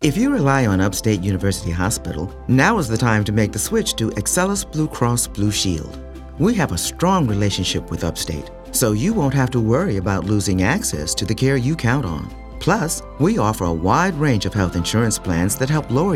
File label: Radio Spot; Upstate